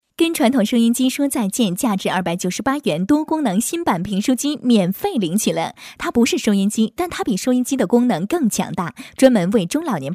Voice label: 女 电台购物 评书机出售-正式, 素人自然

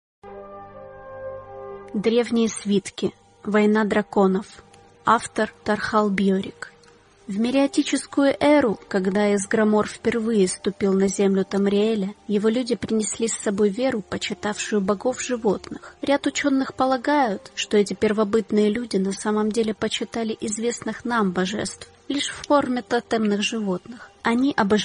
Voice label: 俄语女声

